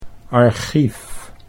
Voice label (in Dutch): "archief", Dutch; 'archive'